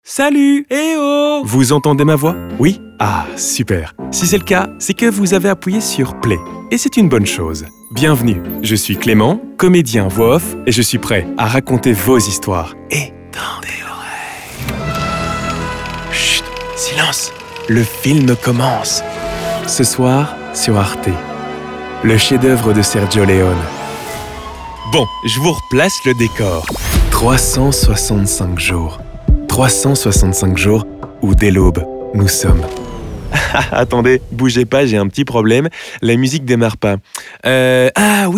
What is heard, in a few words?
VOICE PUB RADIO DOC CORPO; MEDLEY